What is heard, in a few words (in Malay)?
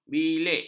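"bilik", Malay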